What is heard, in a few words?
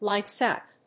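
"lightsout", English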